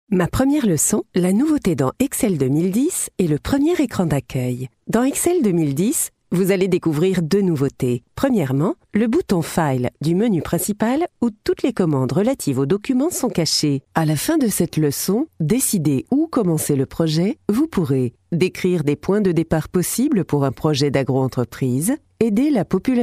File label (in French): E-LEARNING GENERIQUE